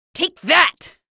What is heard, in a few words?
taunt, citizen voice